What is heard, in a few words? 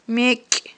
"мекI", Aghul